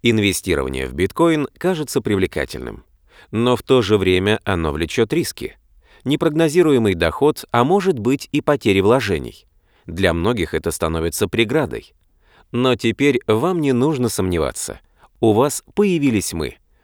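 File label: kind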